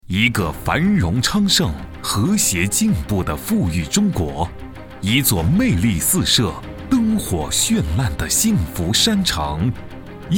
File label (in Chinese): 男-宣传片; 重庆市能源投资公司